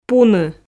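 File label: "пуны", Komi, female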